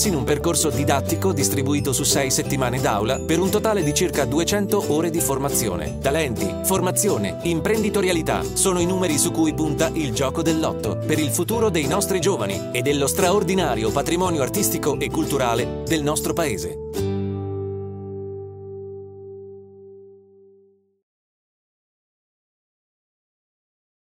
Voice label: italian corporate videos